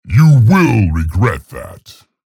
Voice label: vo chatter negative; scalebearer; respawn